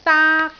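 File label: "daak1", Cantonese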